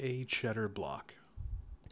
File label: not wake word en